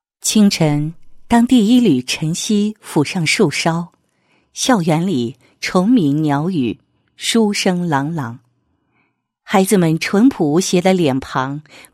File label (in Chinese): 女 学校 宣传; 贫困支教宣传; 明德麓谷学校贫困支教宣传